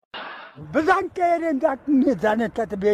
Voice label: angry; afghan

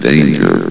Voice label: vox announcer saying danger; half-life

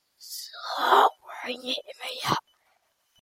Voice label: reverse message

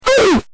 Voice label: distorted oof; roblox

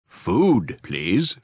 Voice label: food please, en taunt; aoe2

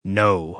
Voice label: "no", en taunt; aoe2

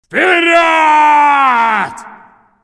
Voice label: russian charge

